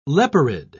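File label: "leporid", British English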